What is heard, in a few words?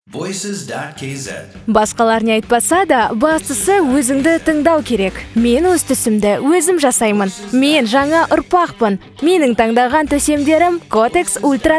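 kazakh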